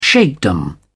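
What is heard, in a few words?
"sheikhdom", British English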